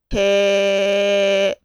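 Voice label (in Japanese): "へ", Japanese syllable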